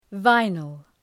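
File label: "vinyl", English; {‘vaınıl}; (Ουσιαστικό)● βινύλι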